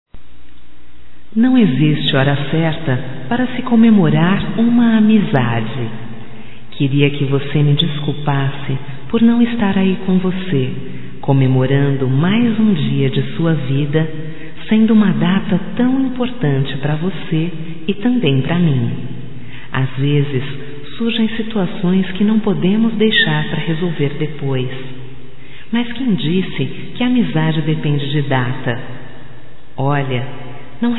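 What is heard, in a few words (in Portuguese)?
voz, Imprevisto de Aniversário, female, Telemensagens; Telemensagens 2017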